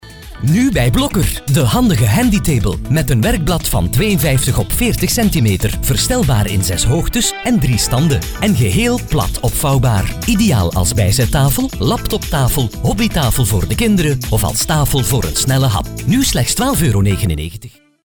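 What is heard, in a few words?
Flemish, male, voice over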